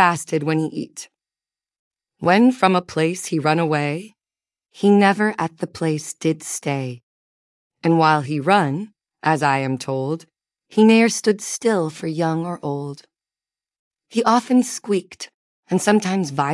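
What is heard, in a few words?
There was a Little Guinea Pig, female US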